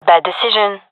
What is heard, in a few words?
disagree, gendarmerie fem epic